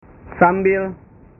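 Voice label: "sambil", Indonesian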